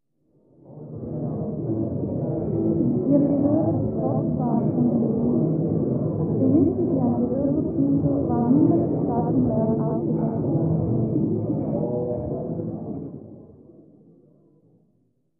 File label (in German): Sprachbeispiel mit Gehoerschaden